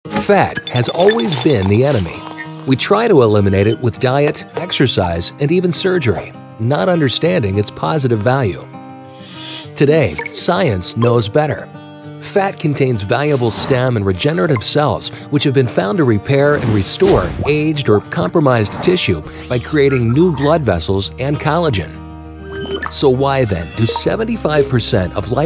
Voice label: Explainer video; Jugendfrei; NATIVE PROFISPRECHER